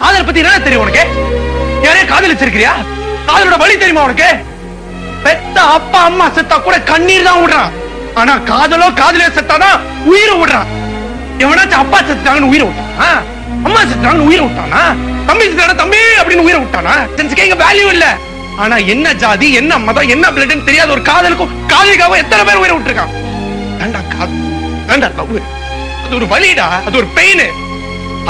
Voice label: Tamil Super Dialogues, ringtone; Hindi